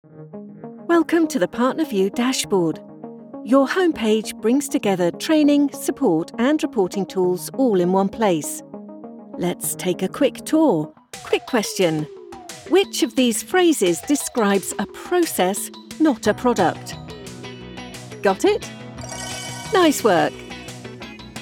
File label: E Learning, Female British Voiceover; E-Learning Reel